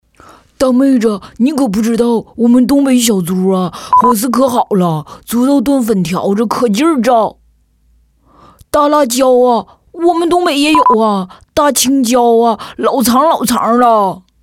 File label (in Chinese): 女 东北话 男童声